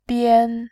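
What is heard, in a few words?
"bian", Mandarin Chinese, tone 1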